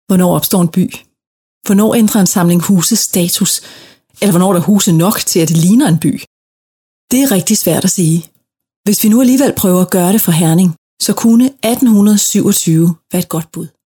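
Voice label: Danish, female, narration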